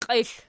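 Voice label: "qiexl" nominative, Archi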